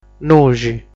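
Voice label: "Nuxi", Sardinian word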